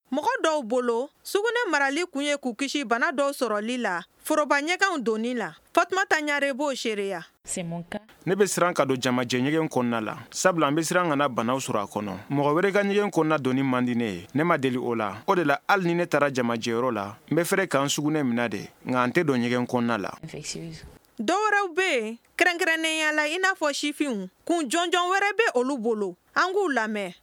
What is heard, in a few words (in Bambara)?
magazine bamanankan